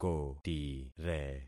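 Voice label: "kotire", concatenated syllables; Affixing experiment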